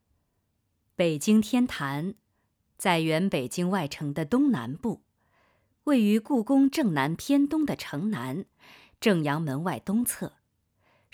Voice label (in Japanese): ドキュメンタリー風, narrator; 企業PV